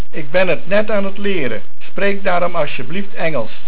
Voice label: Engels, Dutch